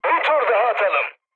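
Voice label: Turkish bomb failure, mood med, speech; War Thunder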